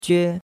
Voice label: "jue1", chinois; Cours-de-Chinois